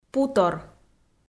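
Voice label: "путор", Komi, female; kpv audio dict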